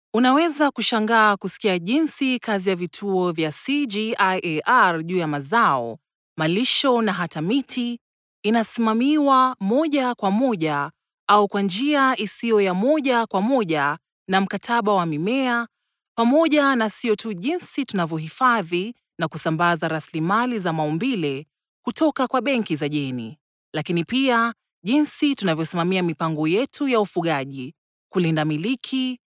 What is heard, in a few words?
Swahili F